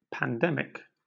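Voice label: "pandemic", British English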